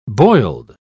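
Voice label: "boiled", English word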